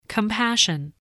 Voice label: "compassion", english